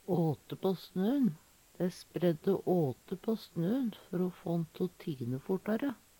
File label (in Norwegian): åte på snø`n, Numedalsmål; Dei spreidde åte på snø`n før o få`n te o tine fortare.